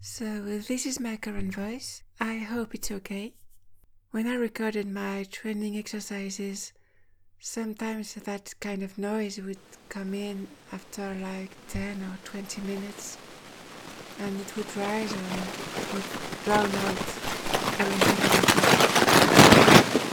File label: voice and ghost noise, Voice Training; ⚧ Transition Diary